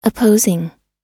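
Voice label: "opposing", American English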